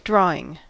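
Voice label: "drawing", US English; pronunciations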